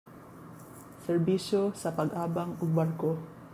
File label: Cebuano